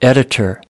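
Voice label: "editor", English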